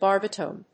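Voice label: "barbitone", English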